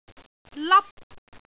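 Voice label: "lap1", Cantonese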